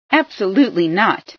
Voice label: absolutely not, female